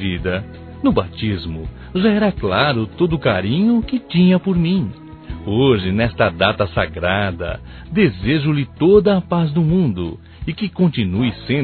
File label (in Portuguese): Natal Para Madrinha Masculino; Telemensagens; Natal e Ano novo